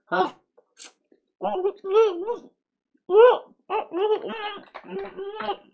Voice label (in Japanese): ダメージボイス; ポーズリクエストに応える回